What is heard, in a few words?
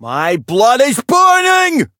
voice chant